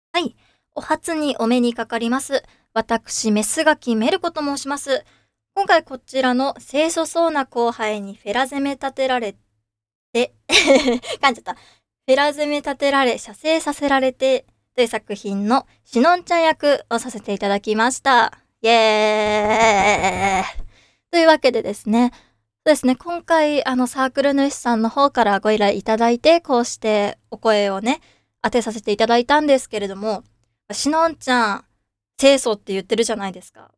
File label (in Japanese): フリートーク, ASMR